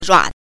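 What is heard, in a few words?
"rua" Mandarin Chinese, neutral tone, pinyin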